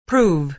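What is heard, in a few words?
"prove", American English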